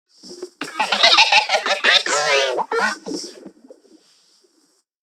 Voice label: Necramech Repair Drone Idle Happy; Battle Barks